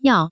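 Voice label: "yao", Taiwan Mandarin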